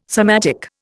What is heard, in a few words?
"somatic", Indian